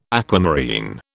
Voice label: "aquamarine", British

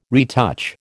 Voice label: "retouch", American

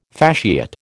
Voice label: "fasciate", American

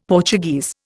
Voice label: "Portuguese", Indian; Dictionary

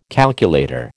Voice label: "calculator", American

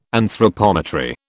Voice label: "anthropometry", British

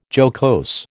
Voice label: "jocose", American

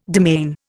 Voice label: "demesne", Indian

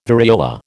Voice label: "variola", American

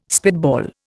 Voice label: "spitball", Indian

